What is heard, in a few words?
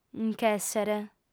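"nc'èssere", Sardinian, female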